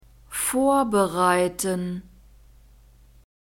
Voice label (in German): "vorbereiten", German